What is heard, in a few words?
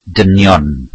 welsh men